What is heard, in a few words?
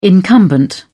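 "incumbent", English, British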